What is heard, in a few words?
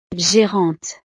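"gerante", French; profesiones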